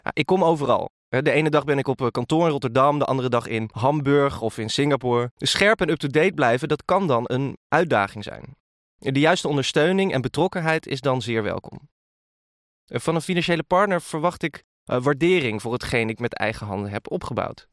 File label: Testimonial